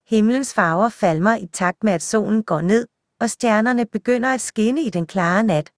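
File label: Danish, Denmark; iOS Sounds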